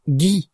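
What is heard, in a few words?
"gui", French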